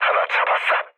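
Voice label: Korean player killed enemy mood low; War Thunder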